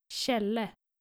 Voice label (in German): "tjelle", nordische jungennamen